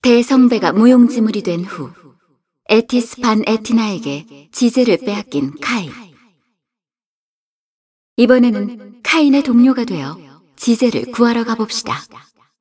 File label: cinematic voice; lineage2